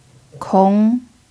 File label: "kong2", Mandarin pinyin